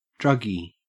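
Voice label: "druggie", Australian English; pronunciation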